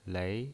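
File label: "lei", pinyin, Mandarin Chinese, second tone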